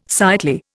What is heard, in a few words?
"sightly", Indian